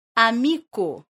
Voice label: "amiko", esperanto